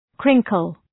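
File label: "crinkle", English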